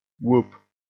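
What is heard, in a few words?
"whoop", English; výkřik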